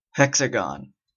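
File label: "hexagon", Canadian English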